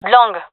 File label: loc long, gendarmerie fem; vo; agents